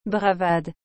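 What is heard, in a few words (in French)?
"bravade", French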